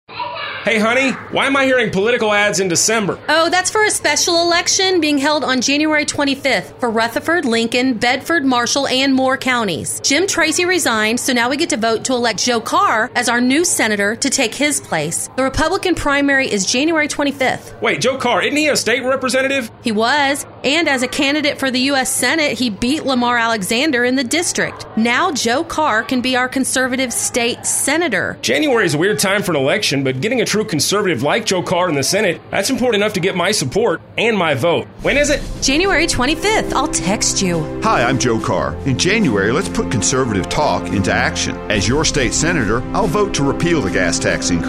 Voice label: radio spot